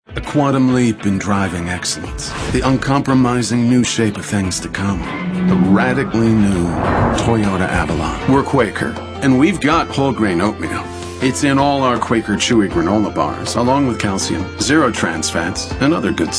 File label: male, sophisticated